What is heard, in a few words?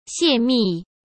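"泄密", Mandarin Chinese; chinese flashcards; hsk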